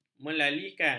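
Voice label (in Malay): "melalikan", Malay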